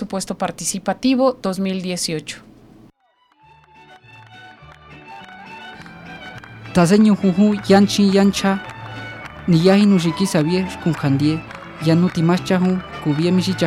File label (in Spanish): perifoneo lengua Mazateca